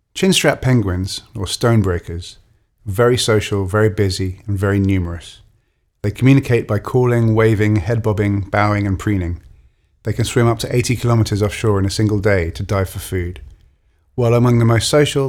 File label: Audio Commentary